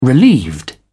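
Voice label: "relieved", British English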